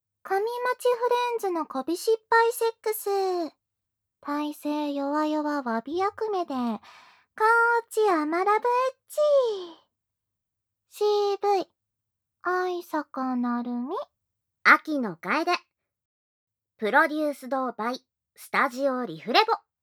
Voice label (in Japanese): タイトルコール, ASMR